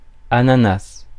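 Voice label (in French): "ananas", French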